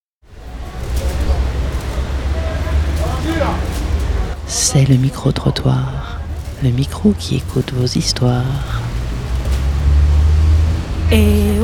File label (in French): microtrotoir